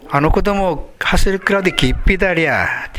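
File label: "geppe", Minamiyamagata dialect Japanese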